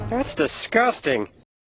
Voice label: disgusting; taunts; hunters